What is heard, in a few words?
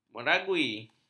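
"meragui", Malay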